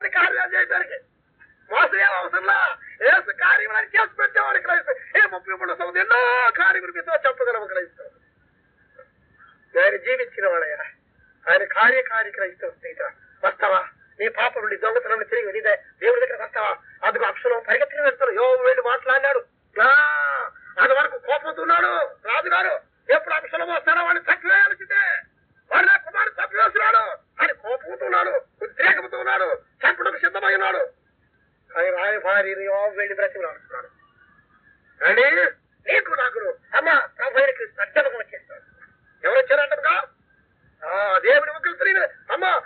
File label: message noise reduced